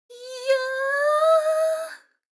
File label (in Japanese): 女の子台詞, ASMR